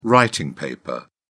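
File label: "writing paper", English, British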